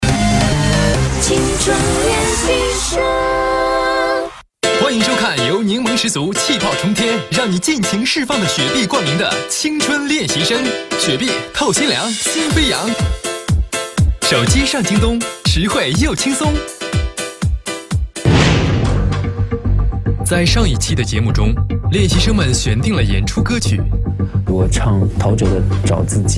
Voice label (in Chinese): 男 栏目配音, 国语男声配音, 青春时尚; 浙江卫视 青春练习生